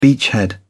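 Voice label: "beachhead", English, British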